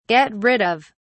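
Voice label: "get rid of", British English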